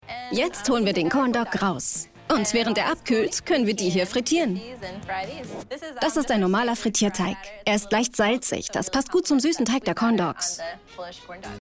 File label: Voice Over TV